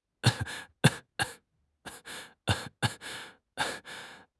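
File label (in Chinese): "犬", Chinese; HSK6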